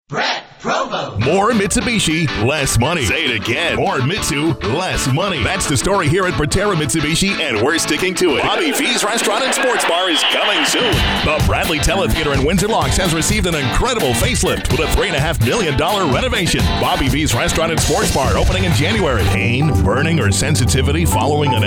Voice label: Radio Commercial